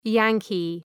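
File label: "Yankee", English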